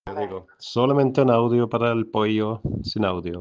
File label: Voice message